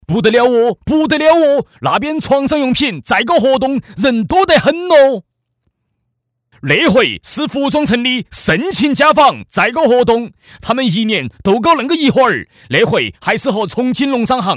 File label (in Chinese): 男16 家纺促销; 年轻